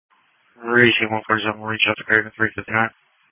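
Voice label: Police Patrol Dispatch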